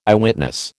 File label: "Eyewitness", American